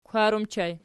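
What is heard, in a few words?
"kwar" ergative plural, Archi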